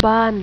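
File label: "home", male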